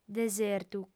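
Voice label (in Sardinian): "desertu", female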